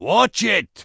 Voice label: Dwarf Male Standard NPC Pissed